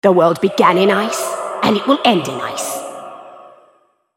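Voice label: taunt, English; Lissandra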